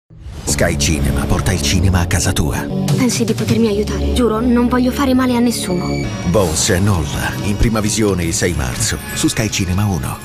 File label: trailer; bones and all